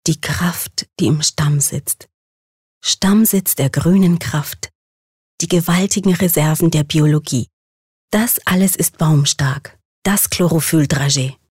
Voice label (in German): Werbung Sanft